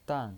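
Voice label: "dan", Mandarin Chinese pinyin voice, fourth tone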